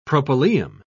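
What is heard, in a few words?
"propylaeum", British English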